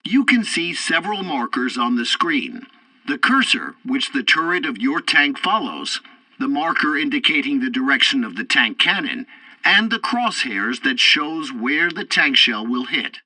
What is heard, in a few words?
tutorial tank arcade cursors explanation, english; War Thunder